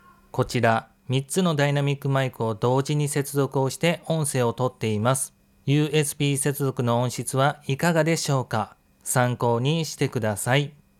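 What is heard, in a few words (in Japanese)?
maono PD100X USB接続音声; ダイナミックマイク; 3台同時録音でとりました; maono ダイナミックマイク：USB接続時の音質について; 3つのマイクの比較じゃと！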